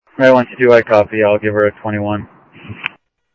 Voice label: South Scottsdale Sheriff, scanner audio